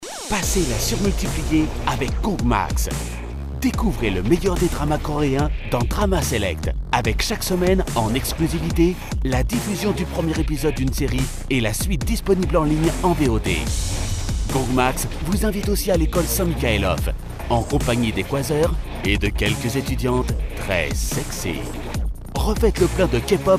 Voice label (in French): Habillage TV gong, French Male Voice